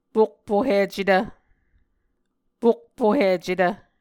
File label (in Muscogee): "pokpohicetv", Muscogee